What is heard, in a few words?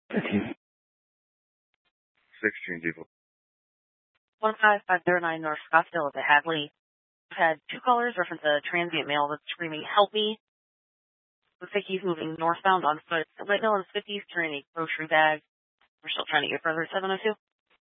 Scottsdale Police, Simulcast